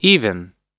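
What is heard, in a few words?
"even", American English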